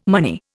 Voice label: "money", Indian